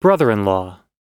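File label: "brother in law", American English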